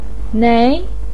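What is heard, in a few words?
"nei", Mandarin Chinese second tone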